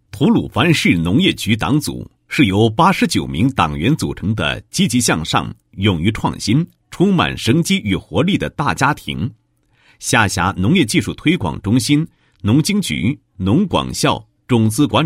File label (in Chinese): 男 党建; 农业局党组建设